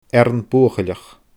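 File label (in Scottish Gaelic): "Earrann Buachailleach", Scottish Gaelic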